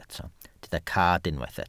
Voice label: "cad", welsh

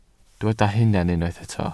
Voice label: "hunan", Welsh

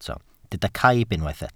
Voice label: "caib", Welsh

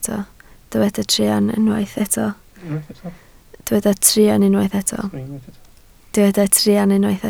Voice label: "truan", Welsh

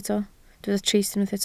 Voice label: "trist", Welsh

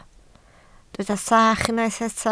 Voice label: "sach", Welsh

